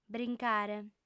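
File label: "brincare", female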